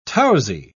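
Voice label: "tousy", British English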